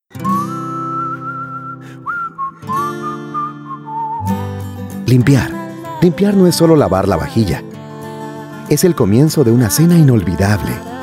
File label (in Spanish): Suave Convencional